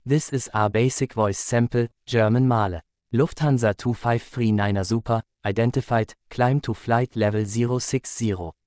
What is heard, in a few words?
German